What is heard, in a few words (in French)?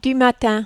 du matin, French